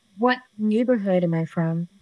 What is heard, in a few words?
Voice Acting es